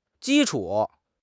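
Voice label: "ji1 chu3", Mandarin Chinese; HSK4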